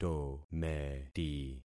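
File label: "tometi", concatenated syllables; Affixing experiment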